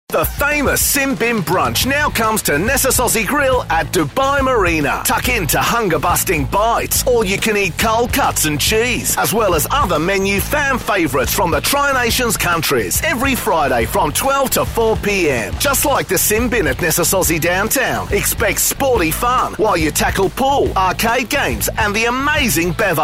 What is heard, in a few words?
australian parody conversational